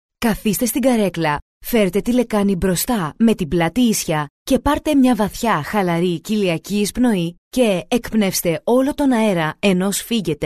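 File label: Greek, female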